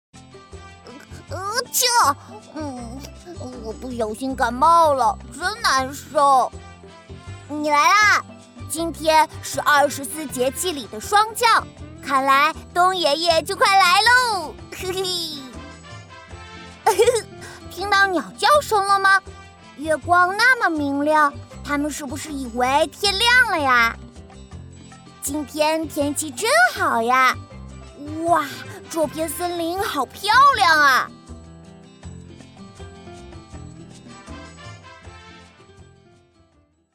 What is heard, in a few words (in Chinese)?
男童点读机; 男童点读机小小智